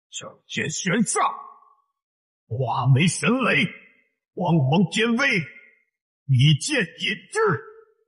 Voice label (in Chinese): 原声男; 神剑御雷真绝